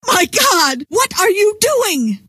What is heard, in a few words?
"what are you doing", scientist female